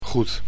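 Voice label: "goed", Dutch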